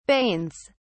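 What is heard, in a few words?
"banes", British English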